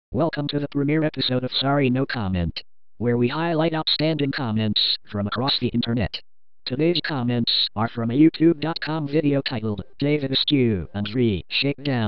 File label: dialogue for robot